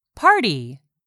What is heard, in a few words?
"party", English; 3000 words